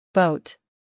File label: "boat", English